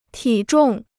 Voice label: "体重", Chinese

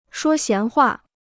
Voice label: "说闲话", Chinese

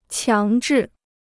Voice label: "强制", Chinese

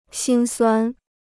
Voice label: "辛酸", Chinese

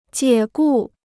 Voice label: "解雇", Chinese

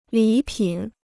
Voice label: "礼品", Chinese

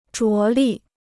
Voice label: "着力", Chinese

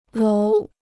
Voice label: "楼", Chinese

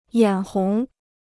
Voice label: "眼红", Chinese

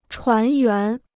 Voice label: "船员", Chinese

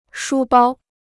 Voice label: "书包", Chinese; 书包 (shū bāo); schoolbag; satchel

